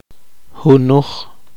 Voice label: poqomchi; aconsejar mal